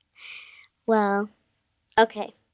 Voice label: Response Well OK, 1 girl, 9 yrs.; Talking